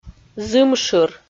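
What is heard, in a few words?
"зымшыр", Komi, female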